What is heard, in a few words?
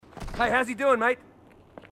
marine, aussie; h2dialogue